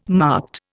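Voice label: "marked", Indian English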